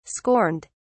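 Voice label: "scorned", British English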